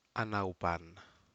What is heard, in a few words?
Aneityum go over